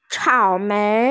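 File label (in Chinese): "cao3mei2", Mandarin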